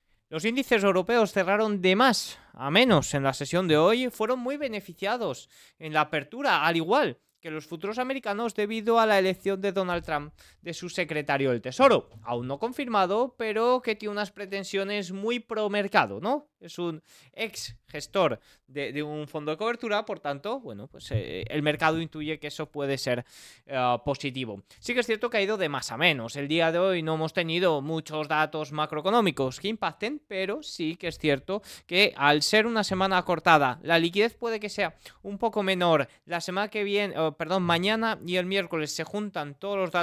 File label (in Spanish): radio; noticias bolsa